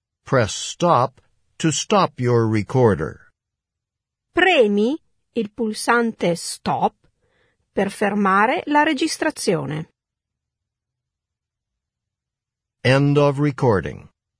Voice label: italian directions; end of part b